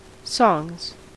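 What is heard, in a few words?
"songs", US English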